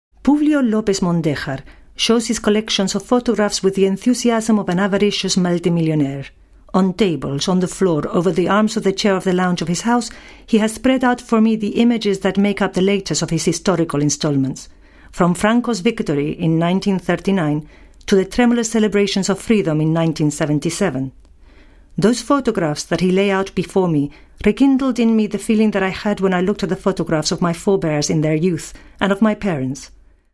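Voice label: Spanish, English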